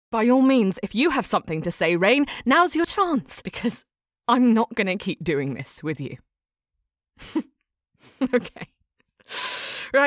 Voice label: British RP

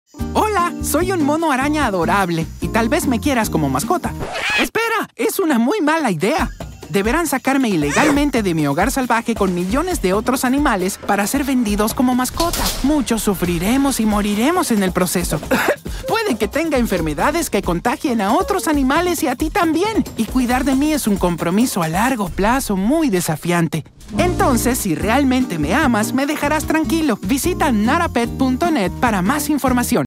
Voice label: Spanish; Learn why certain mammals are NotAPet

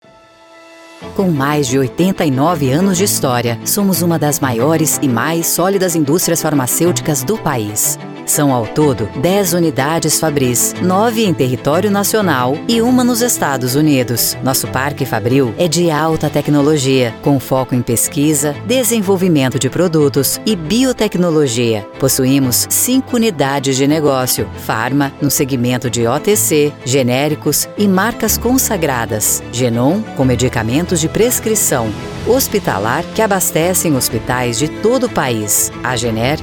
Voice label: Institutional; UNIAO QUIMICA

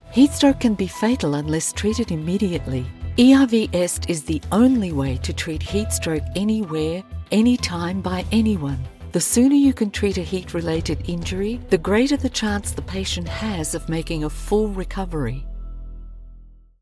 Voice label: Australian accent VO; Bigmouth

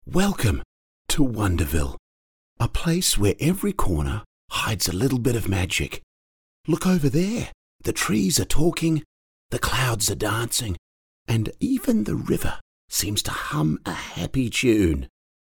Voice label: Speaking English with a Trans-Tasman Accent

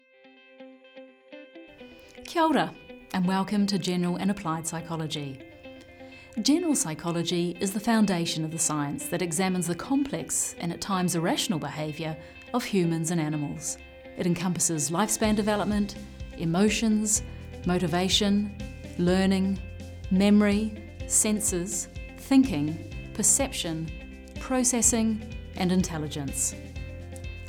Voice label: Explainer

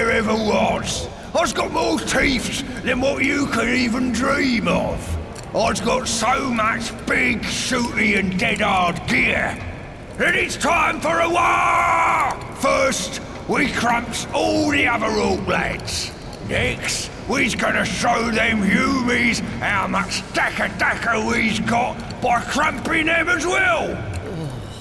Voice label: Cockney Character Accent

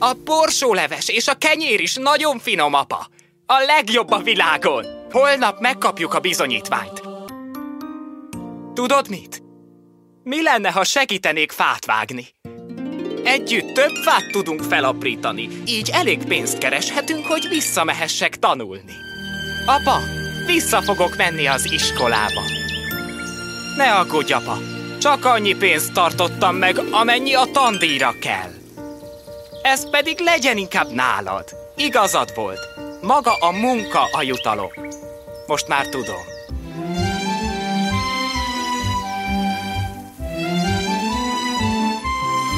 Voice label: ANIMATION DUBBING

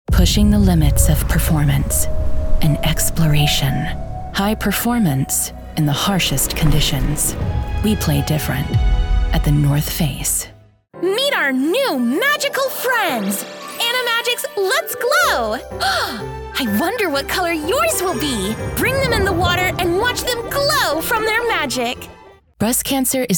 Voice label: Commercial

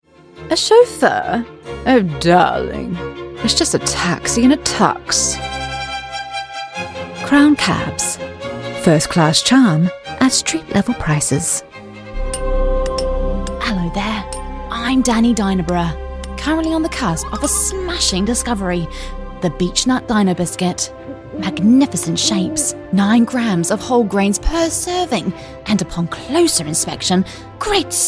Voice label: Comedy, en-AU

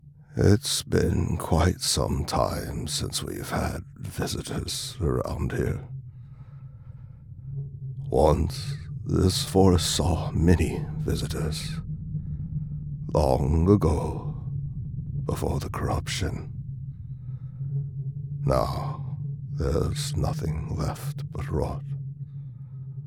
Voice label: Sullen Elderly

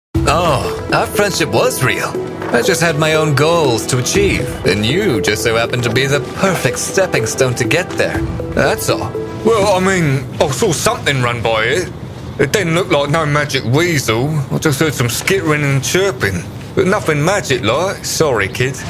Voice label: Voice Actor; BigMouthVoices

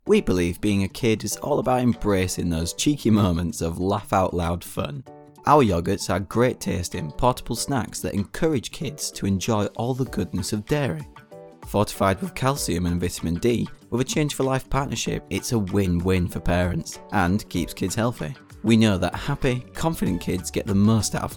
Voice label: Corporate Yogert Commercial

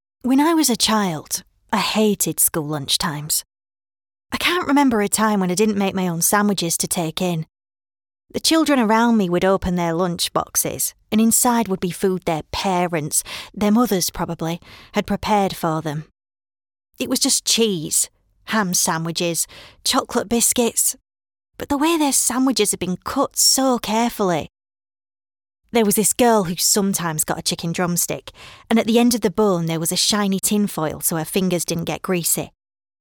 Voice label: Northern accent

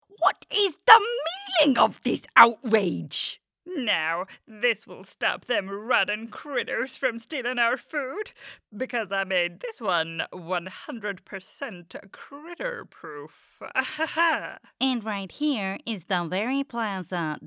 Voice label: Animation

